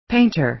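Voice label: "painter", American English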